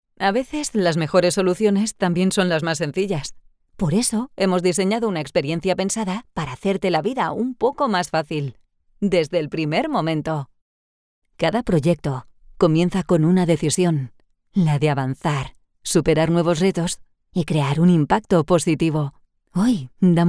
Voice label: Spanish VO Mix